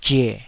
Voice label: "zhe3", Taiwanese syllable